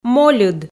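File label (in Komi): "мольыд", Komi, female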